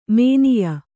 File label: "ಮಾನಾಯ", kannada